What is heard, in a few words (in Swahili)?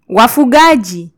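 "wafugaji", Kenyan Swahili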